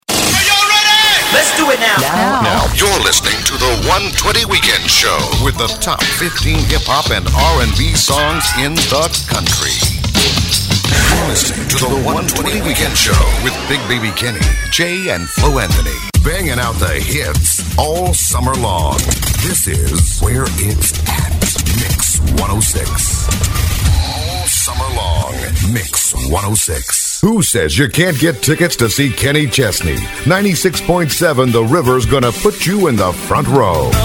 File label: imaging, male voice talent